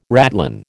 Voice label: "ratline", American